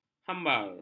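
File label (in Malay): "hambar", Malay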